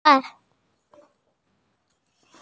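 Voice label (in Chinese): "yu3 san3" Mandarin Chinese, female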